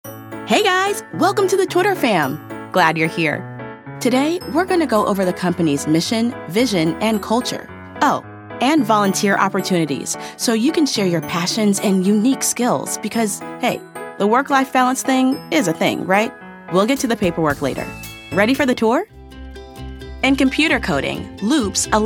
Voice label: eLearning

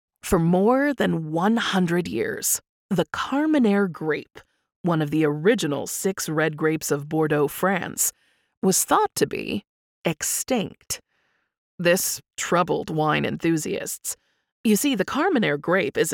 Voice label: Audio Drama

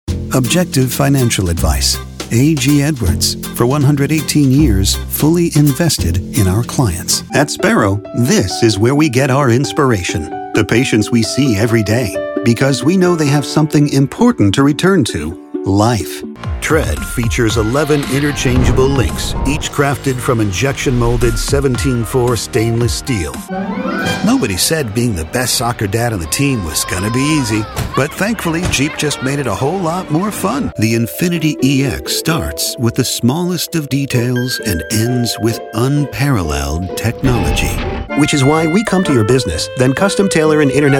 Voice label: Commercial, voiceover

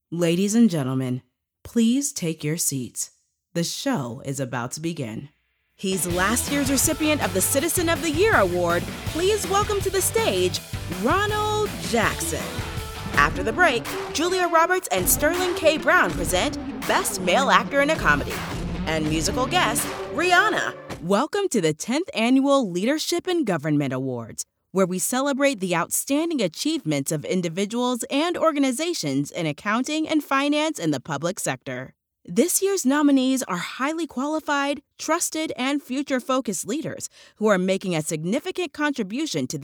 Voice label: Voice of God

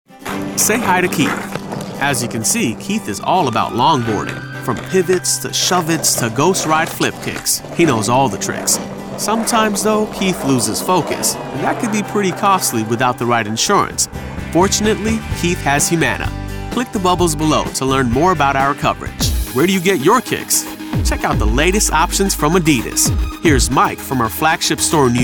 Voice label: Explainer

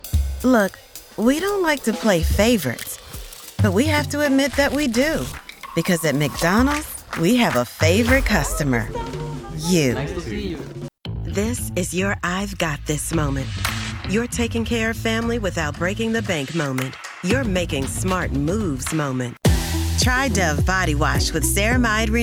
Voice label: Commercial

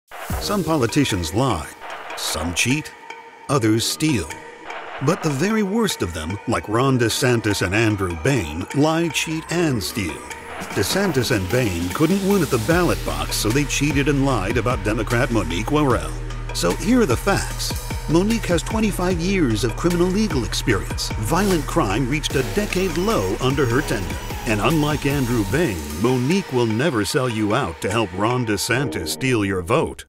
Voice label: political attack ad; voiceover; Reed Award Winner for Toughest Spot Dem

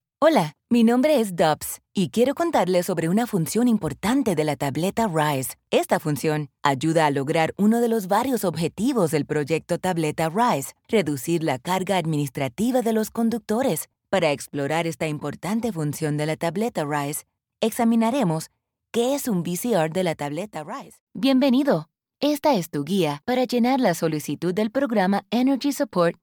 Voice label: Spanish E Learning

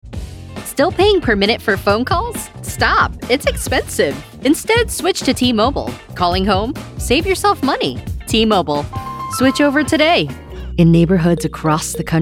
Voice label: Commercial

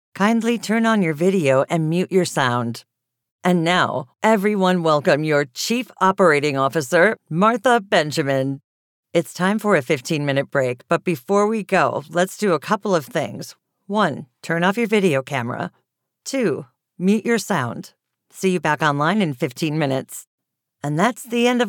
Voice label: Virtual Announcer

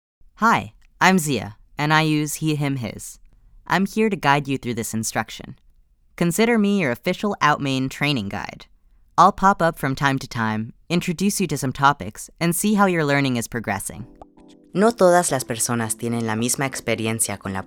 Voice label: eLearning Bilingual; Voiceover for e-Learning

